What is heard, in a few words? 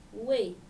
"wei", Dinka